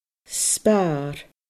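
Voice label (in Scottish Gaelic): "sparr", Scottish Gaelic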